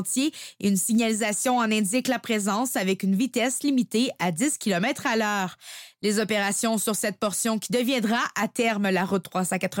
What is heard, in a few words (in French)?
reportage pause hiver